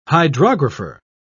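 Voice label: "hydrographer", British English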